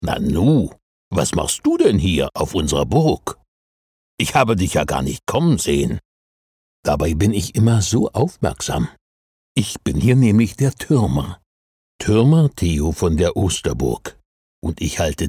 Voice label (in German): audioguide; osterburg